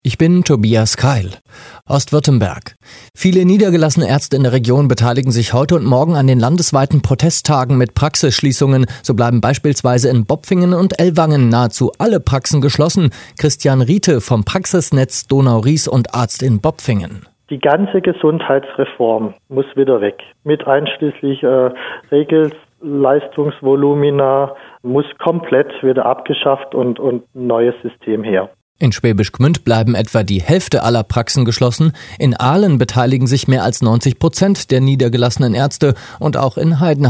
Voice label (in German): NEWS